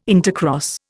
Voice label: "intercross", Indian